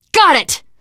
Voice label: kill confirmation, teengirl, voice; Left 4 Dead 2